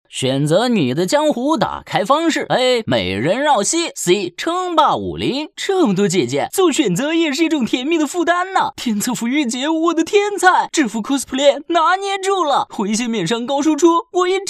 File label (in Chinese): 男, 游戏配音, 声音百变 幽默搞怪; 花好月圆; 王大锤风格